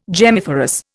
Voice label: "gemmiferous", Indian

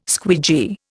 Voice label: "squeegee", Indian

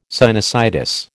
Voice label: "sinusitis", American

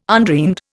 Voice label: "undreamed", Indian

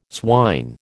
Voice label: "swine", American

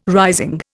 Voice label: "rising", Indian

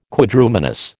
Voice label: "Quadrumanous", American

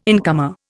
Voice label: "incomer", Indian

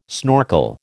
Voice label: "snorkel", American

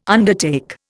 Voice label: "undertake", Indian

